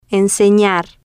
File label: "enseñar", Spanish